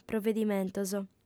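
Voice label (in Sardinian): "provedimentos", female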